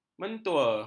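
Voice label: "mentua", Malay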